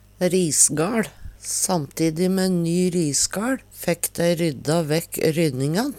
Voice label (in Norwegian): "risgaL", Numedalsmål